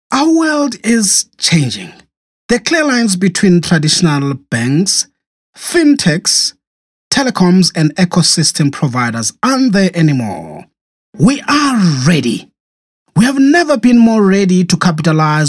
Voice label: english vo example